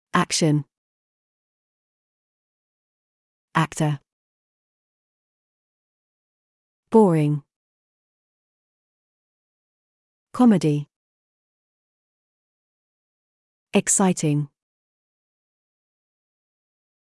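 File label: British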